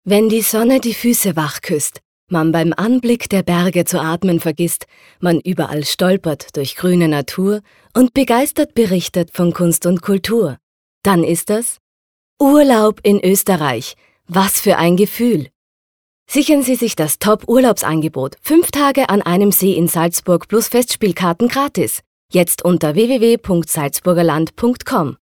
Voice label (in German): Werbung